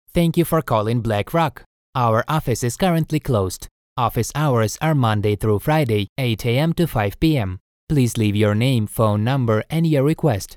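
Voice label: english interactive voice response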